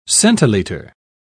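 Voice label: "centiliter", British English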